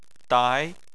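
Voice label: "dai" second tone, Chinese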